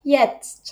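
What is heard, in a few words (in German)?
"jetzt", German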